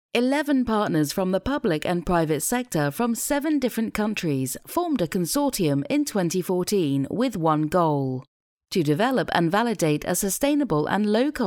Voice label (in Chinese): 外籍英式英语环保产品宣传轻快